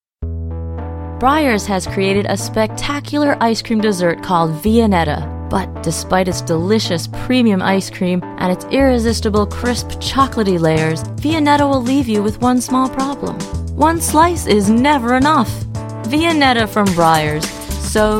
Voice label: Commercial